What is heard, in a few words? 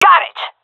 cheer, vo fem; agents; Source Model Packs; Counter Strike Model Pack; CS2